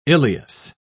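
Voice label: "ileus", English, British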